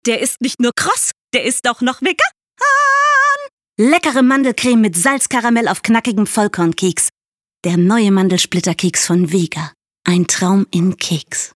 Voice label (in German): Werbung verrückt